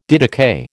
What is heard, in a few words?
"didakai", American